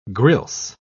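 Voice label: "grilse", British English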